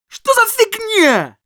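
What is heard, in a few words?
jeers, Russian; Что за фигня?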